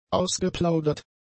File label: "ausgeplaudert", German; adjectives